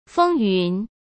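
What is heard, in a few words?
"风云", Mandarin Chinese; hsk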